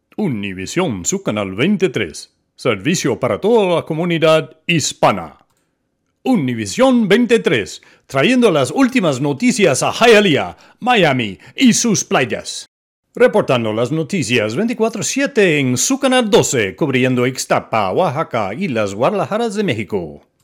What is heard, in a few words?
Spanish Taglines, Spanish Language Taglines